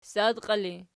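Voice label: ssadqii ergative, Archi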